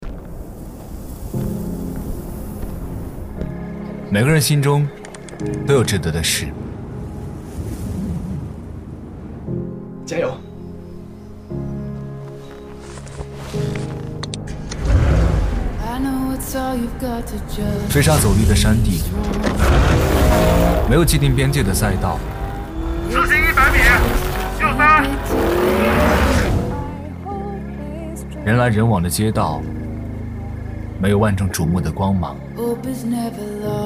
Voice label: 素人讲述; 京东华为

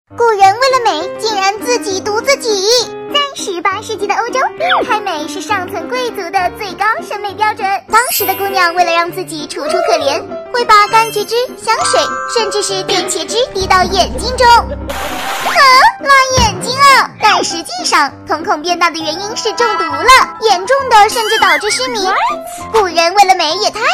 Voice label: 搞怪配音

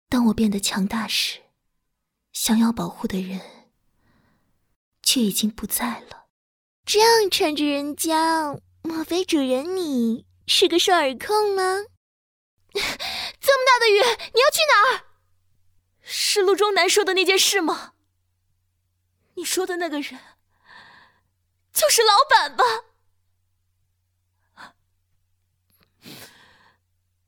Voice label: 配音声线展示少御

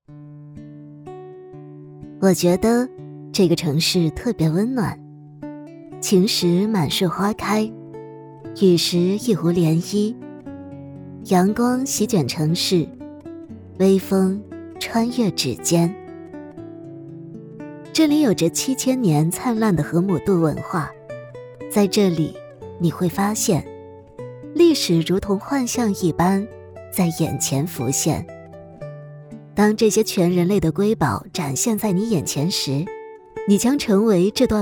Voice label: 宣传片知性温婉; 宁波宣传片

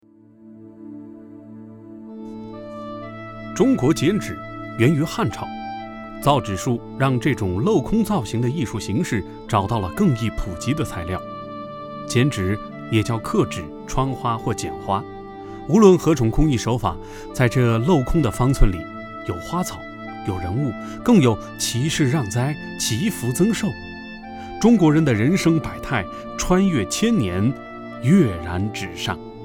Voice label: 非遗纪录片

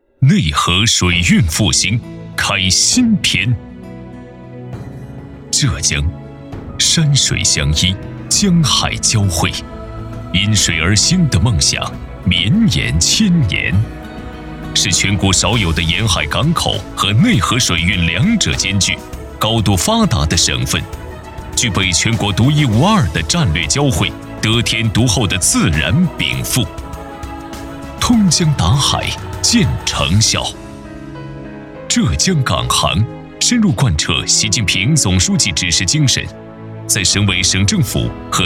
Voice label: 汇报类; 浙江省水利厅水运开新篇

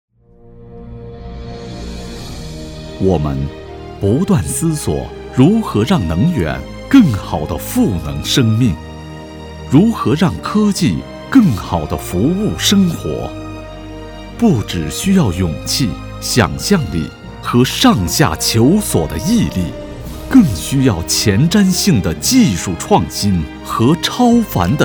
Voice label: 专题片试音

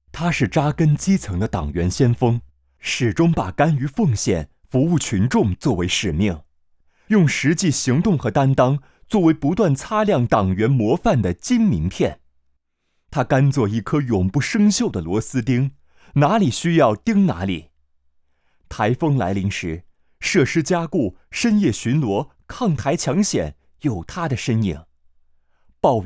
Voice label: 专题人物温暖沉稳

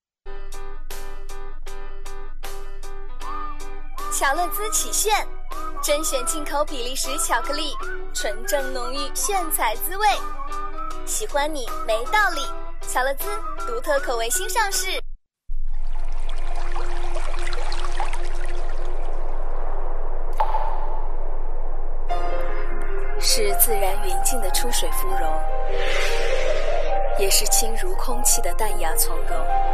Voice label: 广告配音串烧

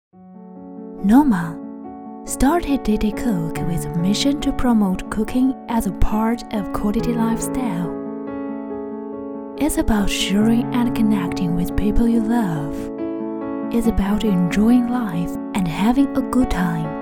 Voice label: 英语广告

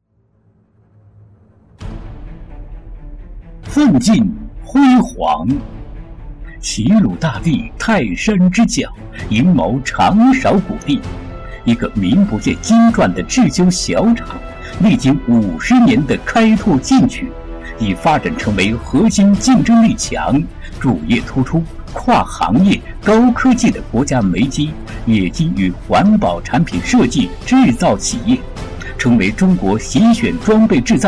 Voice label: 男声企业专题配音激情大气类

